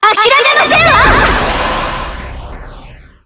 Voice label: resonance jp; weiss